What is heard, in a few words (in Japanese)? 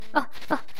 ダメージボイス; 悪魔城ドラキュラ(FC版)